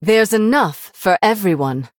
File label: Female Human MIRROR START; en